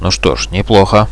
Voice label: win, Russian; bot, radio